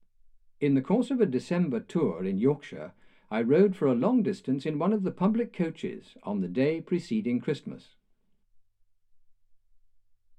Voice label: male speech english